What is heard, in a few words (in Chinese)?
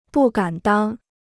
"不敢当", Chinese